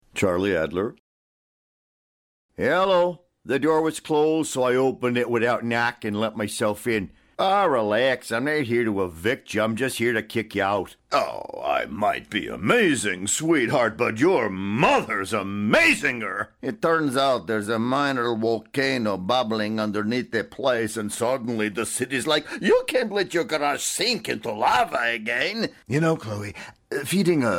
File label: animation; Innovative LA